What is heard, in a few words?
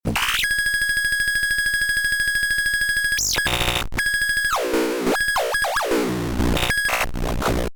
alien first words plus loop